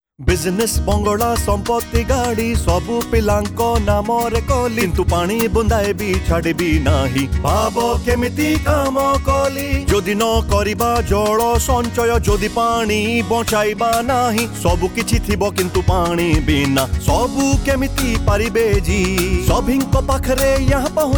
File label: odia; Water Conservation